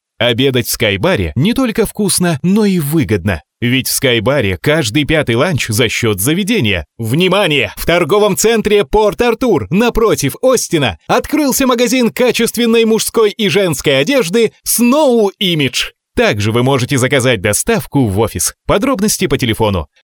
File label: fast